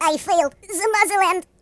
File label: "Oh dear", Russian